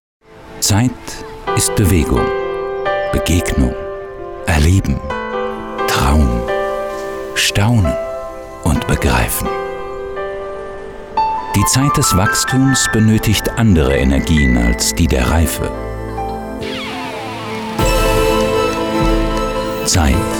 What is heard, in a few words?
image Film